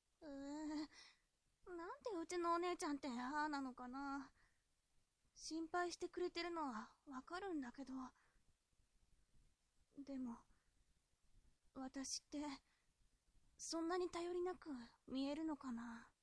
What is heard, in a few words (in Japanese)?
josei